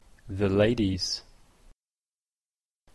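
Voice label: "the ladies", Canadian English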